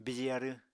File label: "bije arw", Apatani